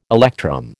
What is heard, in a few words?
"electrum", American